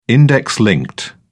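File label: "index linked", British English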